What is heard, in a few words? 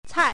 "cai4", Chinese